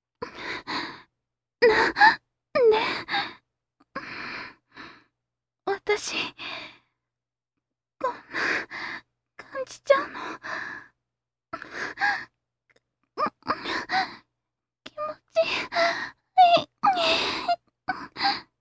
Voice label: adult